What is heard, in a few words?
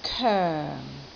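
"koeng4", Cantonese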